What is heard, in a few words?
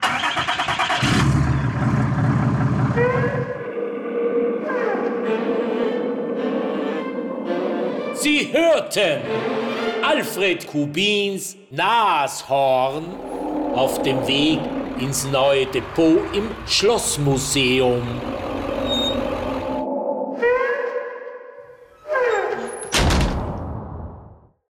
radio jingle; nashorn; orf